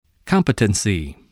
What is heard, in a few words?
"competency", english